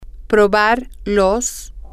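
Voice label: to taste try it, spanish, female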